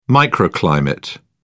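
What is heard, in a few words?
"microclimate", British English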